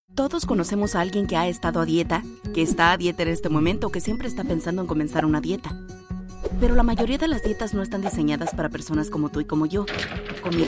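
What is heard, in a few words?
Training Video Spanish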